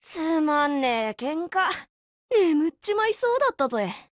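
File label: win, Japanese; HK512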